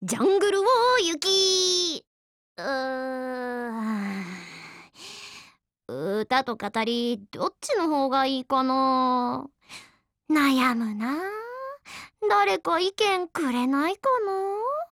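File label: DIALOGUE, Japanese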